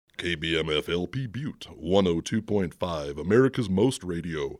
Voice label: Creamy Voice; NEWSHOUR